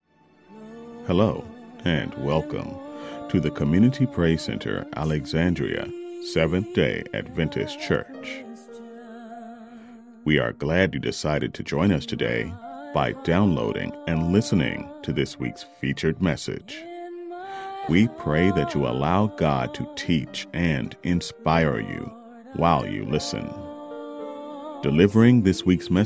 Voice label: Podcast Intro-Outro

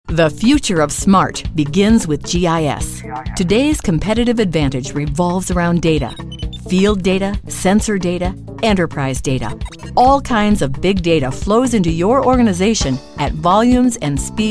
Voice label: CORPORATE INDUSTRIAL

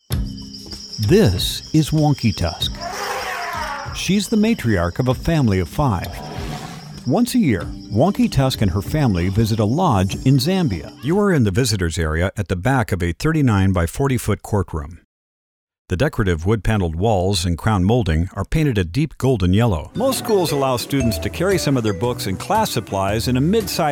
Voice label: Narration

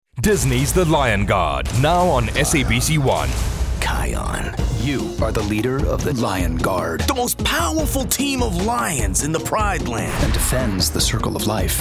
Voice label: Radio; Disney SABC TV Lion Guard; MIX

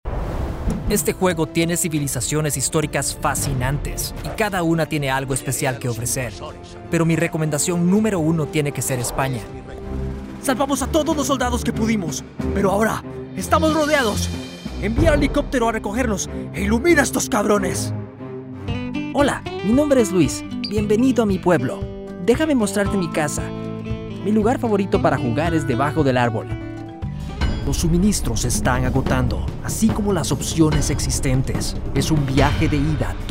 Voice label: Character compilation SPA

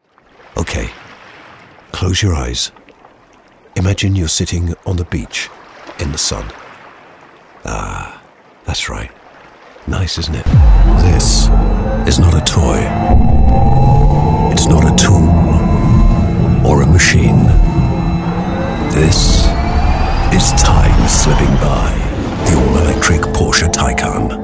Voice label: commercials

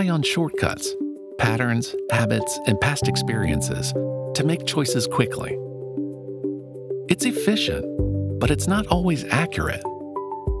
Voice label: Decision Making Modern Life Documentary

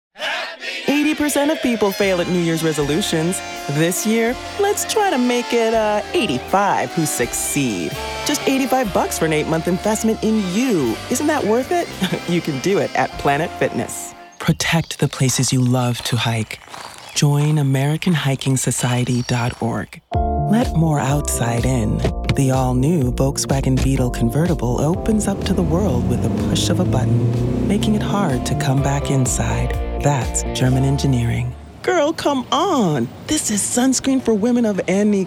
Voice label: Commercial

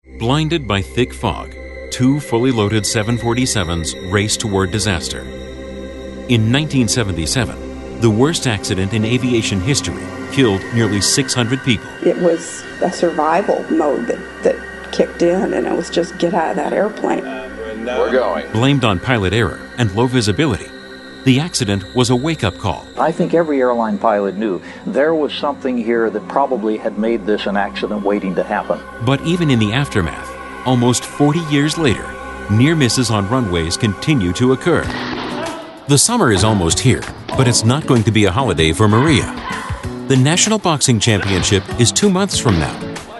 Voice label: Documentary

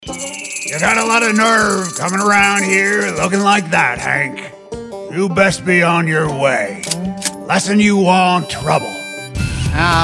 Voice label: Animation

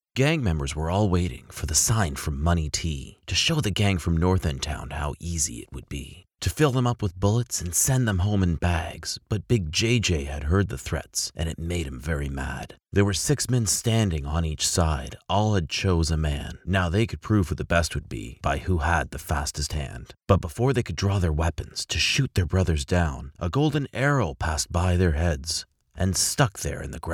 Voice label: Storyteller

